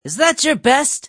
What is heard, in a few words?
pain; Adachi